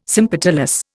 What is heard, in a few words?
"sympetalous", Indian